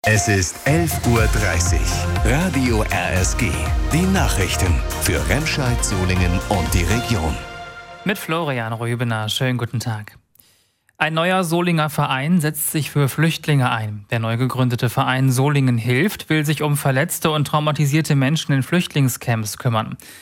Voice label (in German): Nachrichtenmeldung; RSG Solingen hilft; Moria-Einsatz